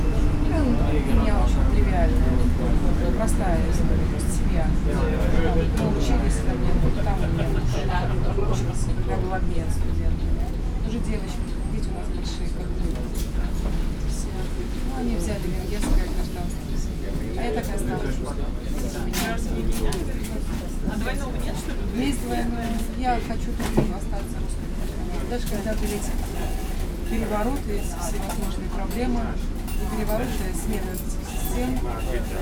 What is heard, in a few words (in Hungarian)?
felszallo utas beszelgeto emberek; Dömös; busz távolsági